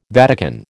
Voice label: "Vatican", American

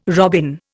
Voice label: "robin", Indian

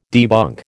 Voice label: "debunk", American

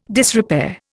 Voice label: "disrepair", Indian

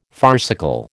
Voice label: "farcical", American

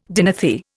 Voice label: "dinothere", Indian

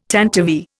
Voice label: "tantivy", Indian

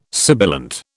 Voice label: "sibilant", British

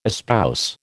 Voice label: "espouse", American

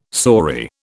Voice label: "saury", British

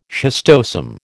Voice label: "schistosome", American

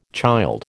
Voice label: "child", American

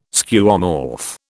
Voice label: "Skeuomorph", British

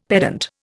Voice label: "pedant", Indian; Dictionary

